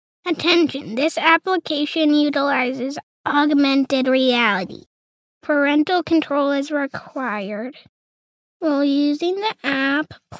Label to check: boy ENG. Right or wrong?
Right